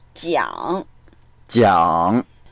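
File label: "jiang3" Mandarin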